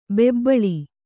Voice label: "ಬೆಬ್ಬಳಿ", Kannada